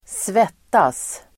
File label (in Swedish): "svettas", Swedish